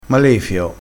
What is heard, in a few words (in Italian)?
"malefio", dialettale crespinese